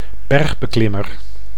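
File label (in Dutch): "bergbeklimmer", Dutch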